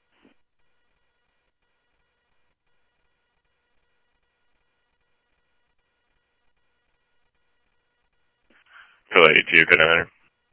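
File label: fire radio dispatch, Phoenix Fire; H-Scottsdale; PHXFD K7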